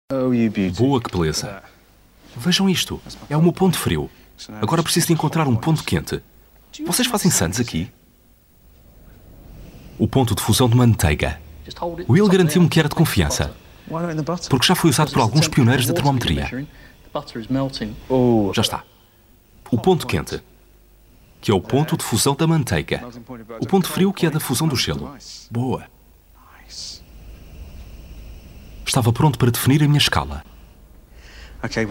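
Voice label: doblaje, Portuguese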